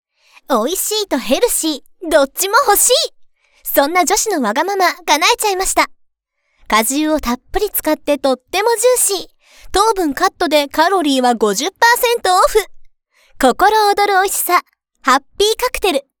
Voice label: narration